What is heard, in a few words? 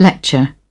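"lecture", British English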